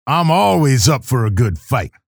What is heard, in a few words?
chatter neutral; keel